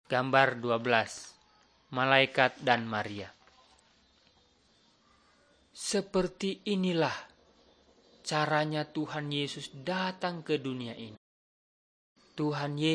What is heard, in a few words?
malay manado; good news